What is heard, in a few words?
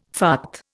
"fart", Indian